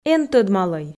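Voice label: "эн тӧдмалӧй", Komi, female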